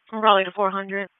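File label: Raleigh Police Southeast District Dispatch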